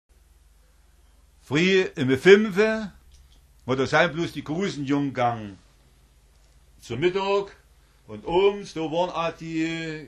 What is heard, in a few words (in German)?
Obersaechsisch; Mitteldeutsch